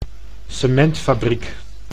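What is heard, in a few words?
"cementfabriek", Dutch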